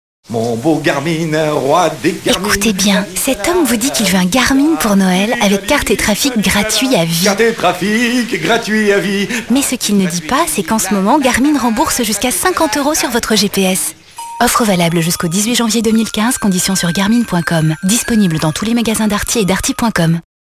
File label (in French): Radio Noel Spot; GARMIN